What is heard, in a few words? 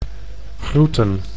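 "groeten", Dutch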